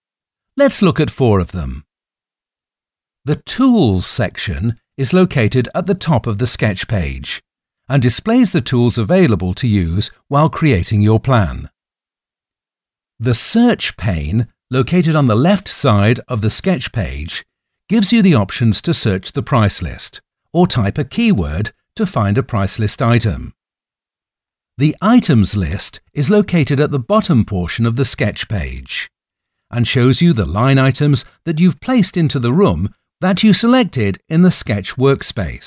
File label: e learning